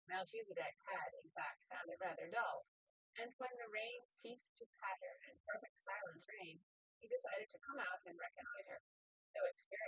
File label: female; SiSEC10; underdetermined